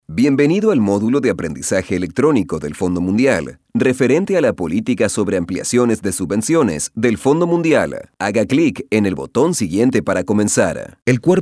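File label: Spanish neutral narration dry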